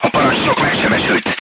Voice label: voice message commander wounded, crew tanks hungarian